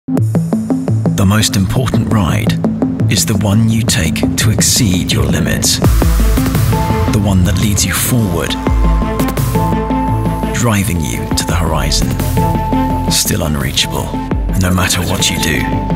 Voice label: Professional Cycling Tyres web commercial young UK male voice over; Vittoria Corsa